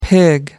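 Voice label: "pig", English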